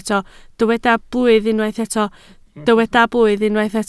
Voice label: "blwydd", Welsh